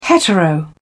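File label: "hetero", English, British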